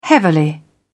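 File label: "heavily", British English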